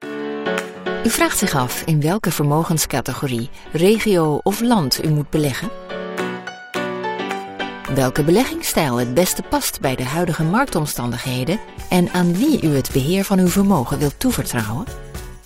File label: dutch narration